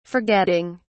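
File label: "forgetting", British English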